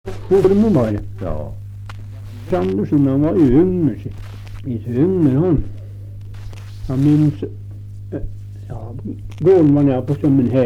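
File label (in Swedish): Österfärnebo Gästrikland, Svenska sagor, dialekter; Folkminnen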